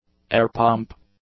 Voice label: "air-pump", English; nouns